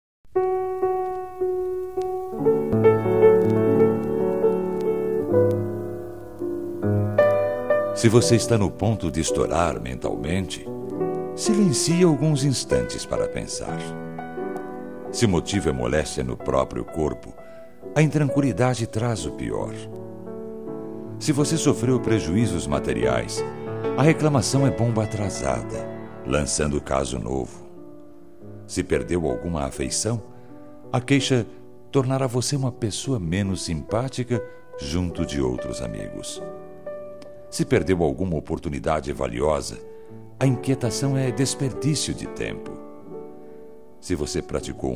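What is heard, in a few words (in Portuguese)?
Religiosa Mensagem pra Acalmar, Masculino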